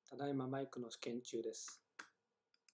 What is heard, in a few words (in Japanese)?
iMac内蔵マイク